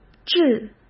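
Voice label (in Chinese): "致", Mandarin Chinese; hsk; chinese-flashcards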